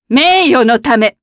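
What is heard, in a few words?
Vox Victory, Japanese; Demia; kingsraid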